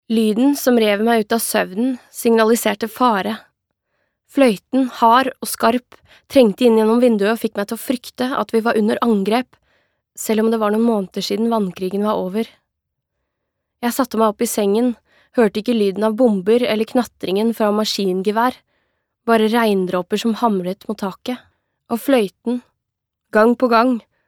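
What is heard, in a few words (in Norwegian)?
lydbok, Norwegian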